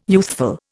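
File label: "youthful", Indian English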